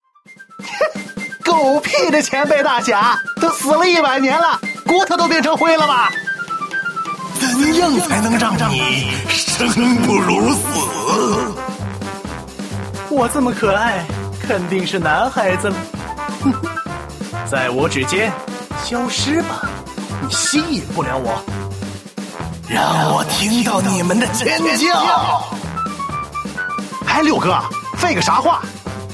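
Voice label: other, male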